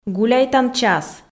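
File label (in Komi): гуляйтан час, female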